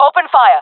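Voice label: attacking, jungle female; Counter Strike Model Pack; CS:GO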